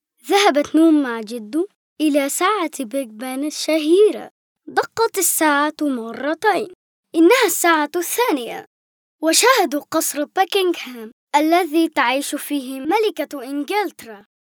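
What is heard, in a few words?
arabic kid male narration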